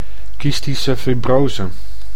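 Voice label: "kistische fibrose", Dutch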